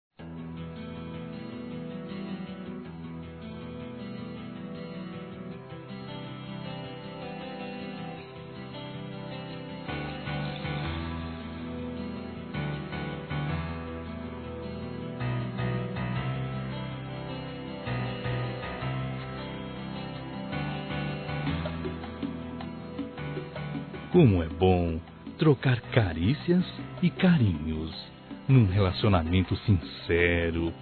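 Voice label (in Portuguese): ROMANTICA SENSUAL, Telemensagens, Românticas masculino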